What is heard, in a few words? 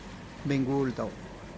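"ben gul do", Teotitlán del Valle Zapotec; grandfather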